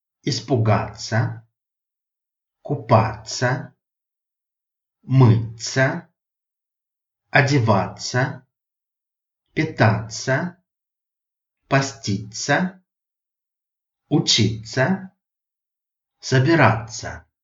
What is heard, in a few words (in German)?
russisch; Sprachkalender Russisch 2023 März 29